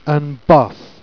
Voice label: "beusse", Jèrriais

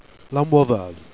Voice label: "amouotheuse", Jèrriais

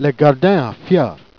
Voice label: "gardin à flieurs", Jèrriais

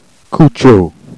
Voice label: "coutchieaux", Jèrriais

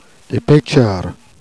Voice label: "pêtcheurs", Jèrriais

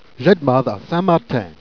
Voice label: "j'dmeuthe à St Martin", Jèrriais

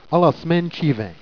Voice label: "à las main et chi veint", Jèrriais

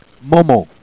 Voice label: "man-man", Jèrriais

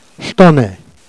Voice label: "ch't année", Jèrriais

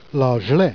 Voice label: "glee", Jèrriais

